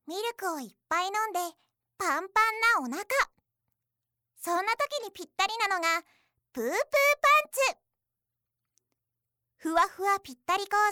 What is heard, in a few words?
narration